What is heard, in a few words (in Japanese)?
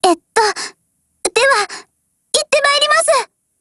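operation begin, Japanese; MP5Mod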